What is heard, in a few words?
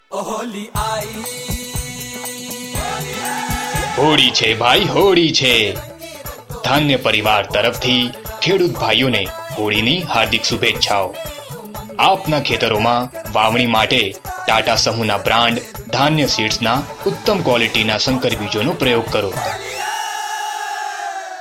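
promo gujarati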